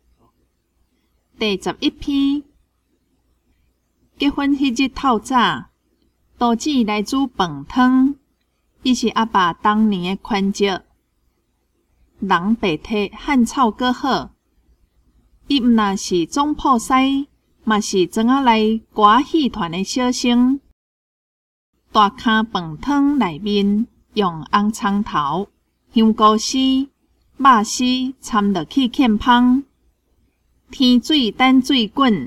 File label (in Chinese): 文章朗讀, 閩南語; 閩南語語言能力認證; 金安咱來考C